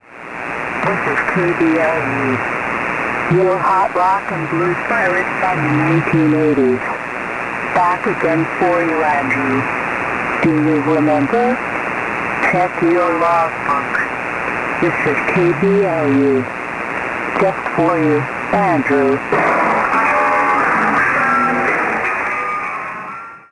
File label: ID computer female voice ID; KBLU